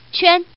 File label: Woman